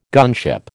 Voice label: "gunship", American